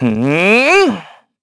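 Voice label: Vox Casting, korean; Evan; kingsraid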